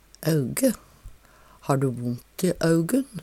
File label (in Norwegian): "auge", Numedalsmål